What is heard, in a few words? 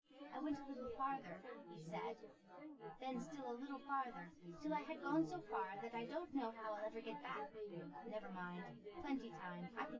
female synthconv